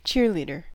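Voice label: "cheerleader", American English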